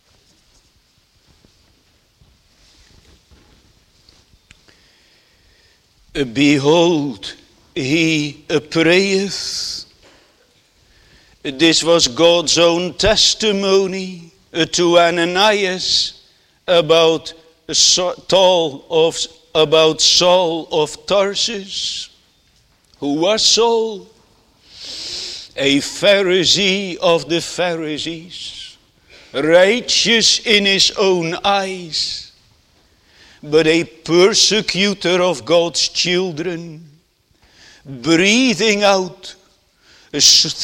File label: Sermon